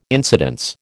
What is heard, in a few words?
"incidence", American